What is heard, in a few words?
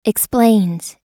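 "explains", American English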